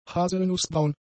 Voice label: "haselnussbraun", German; adjectives